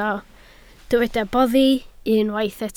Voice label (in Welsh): "boddi", Welsh